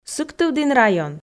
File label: "сыктывдін район", Komi